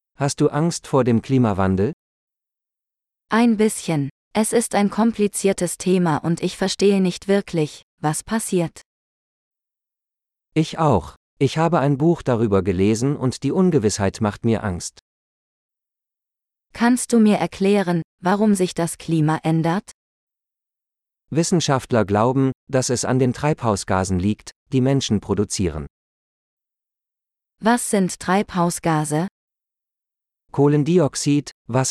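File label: Greenhouse Effect - Dialogue, German; de-en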